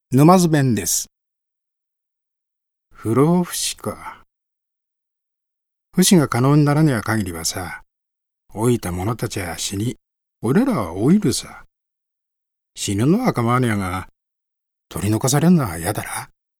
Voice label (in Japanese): 方言